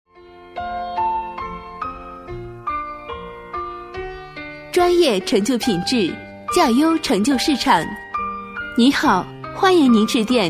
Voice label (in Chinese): 女 彩铃 酒店连锁企业彩铃, 国语女声配音; 彩铃广告